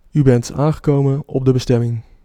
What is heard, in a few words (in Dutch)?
Arrive, Dutch, male